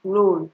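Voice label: "roll", Nigerian Pidgin, female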